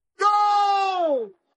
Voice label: announcer says goal